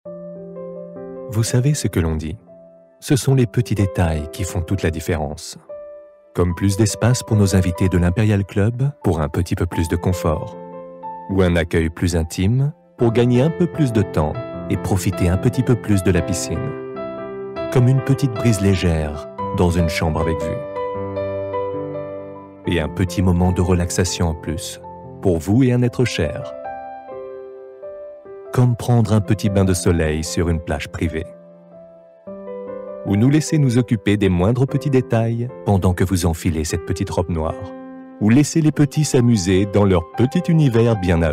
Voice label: commercial; atlantis imperial club